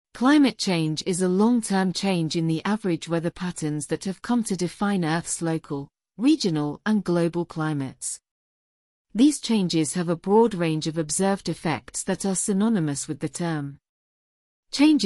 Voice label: UK